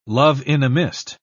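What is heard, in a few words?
"love-in-a-mist", British English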